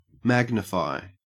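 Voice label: "magnify" Australian English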